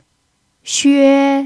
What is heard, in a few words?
"xue1", Mandarin pinyin